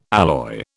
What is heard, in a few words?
"alloy", British